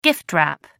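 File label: "gift-wrap", British English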